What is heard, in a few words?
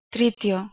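"tritiHo", Proto-Indo-European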